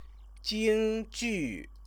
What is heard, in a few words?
"jingjiu", Chinese